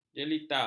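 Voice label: "jelita", Malay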